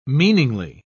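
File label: "meaningly", British English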